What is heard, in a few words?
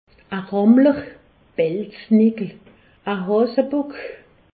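"ramler", Haut Rhin; medecine; un chaud lapin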